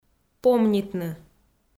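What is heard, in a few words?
"помнитны", Komi, female; kpv audio dict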